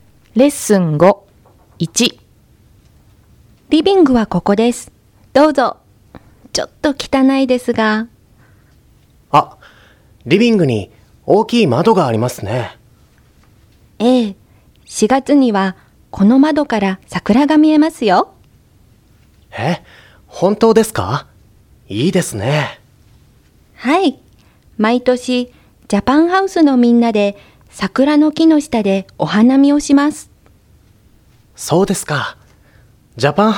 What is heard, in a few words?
Conversation